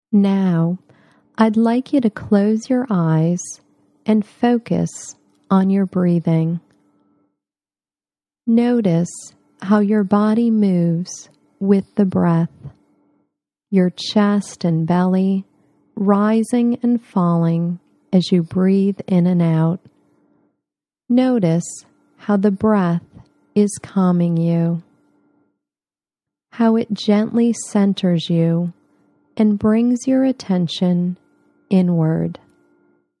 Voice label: Adult Companion Guided Imagery